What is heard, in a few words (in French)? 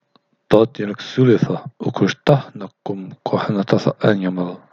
Je suis je vous parle en qom notre langue